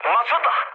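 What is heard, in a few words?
Korean aircraft destroyed mood low; speech; War Thunder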